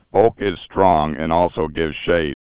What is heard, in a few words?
synthetic, speech; digital speech processing course